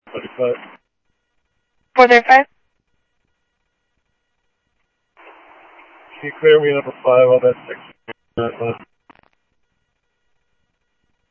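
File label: Sheriff East County, scanner audio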